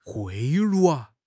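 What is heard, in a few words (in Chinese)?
"贿赂", Mandarin Chinese; HSK6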